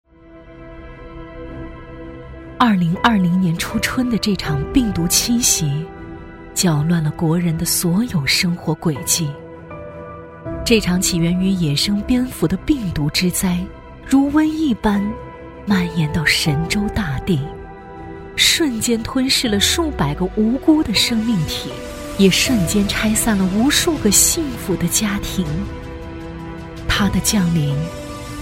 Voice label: 疫情专题朗诵

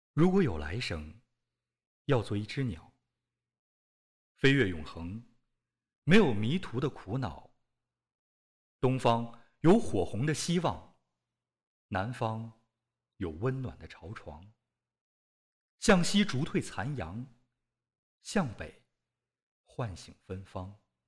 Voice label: 情感类

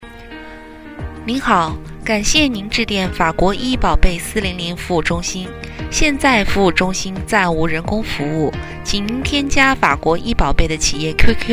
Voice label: 企业电话无人

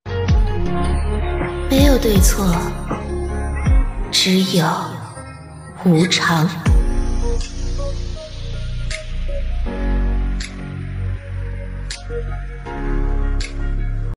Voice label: 游戏角色配音

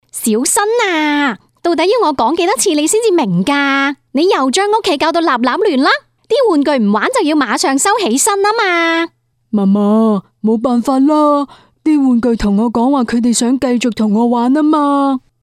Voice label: 动画配音

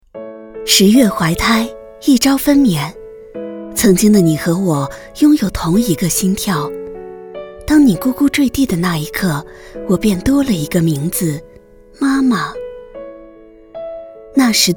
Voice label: 专题温馨风格公司宣传

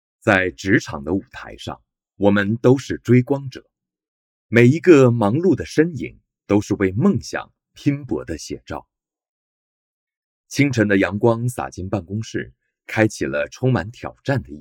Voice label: 工作场景配音干音

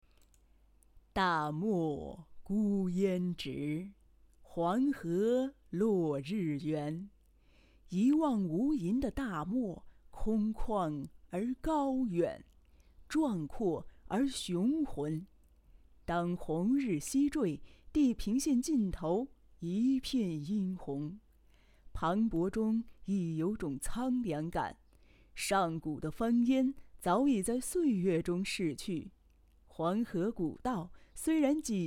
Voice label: 纪录片浑厚朗读

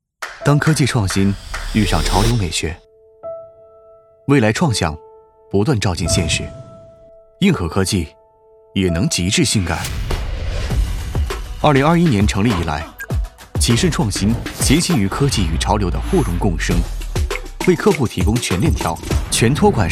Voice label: 科技宣传; 企顺创新科技

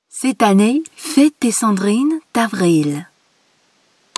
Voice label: 法语广告配音

